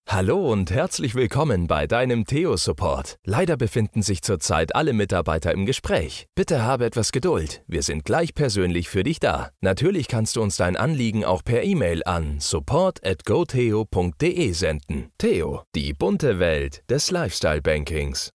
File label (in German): Telefonansage; TEO